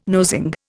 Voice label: "nosing", Indian